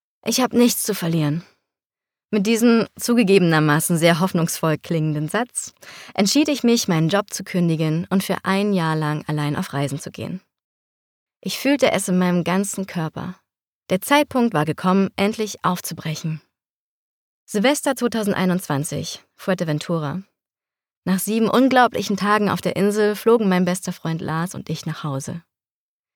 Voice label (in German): Hoerbuch, Sprecherin